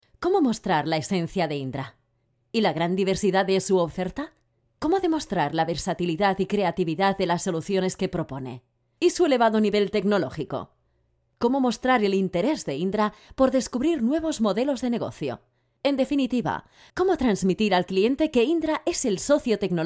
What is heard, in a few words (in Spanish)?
corporativo narrativo